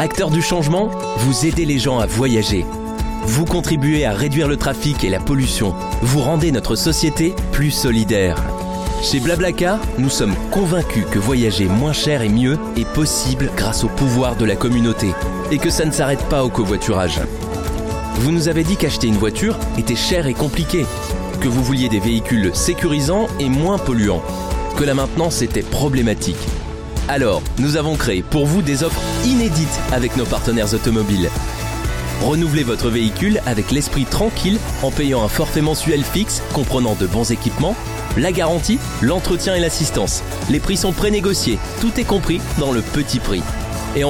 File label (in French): spot ambassadeur; blabla car